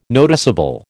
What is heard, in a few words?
"noticeable", American